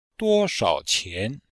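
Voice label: "duo1 shao3 qian2", Chinese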